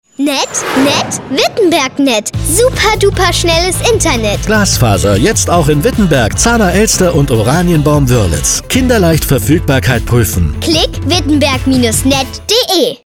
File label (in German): Radiospot Reminder; wittenberg Net